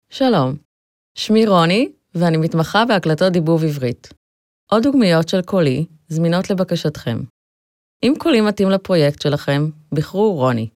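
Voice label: Hebrew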